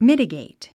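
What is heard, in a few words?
"mitigate", English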